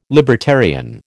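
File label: "libertarian", American English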